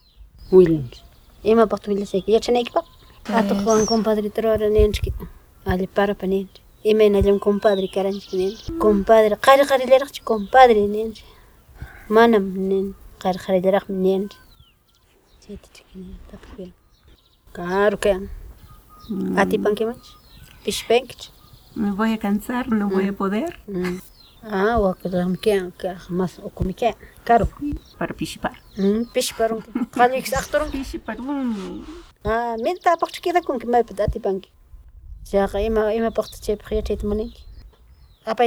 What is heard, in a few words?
Conversation; Liscay